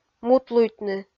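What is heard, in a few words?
"мутлуйтны", Komi, female; kpv; audio dict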